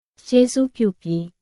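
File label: Myanmar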